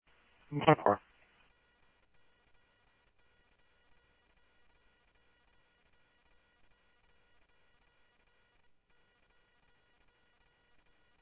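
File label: Mesa Police Department, scanner audio trunk; Mesa Simulcast; MESA PD 2 Centrl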